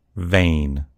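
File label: "vein", American English; gcompris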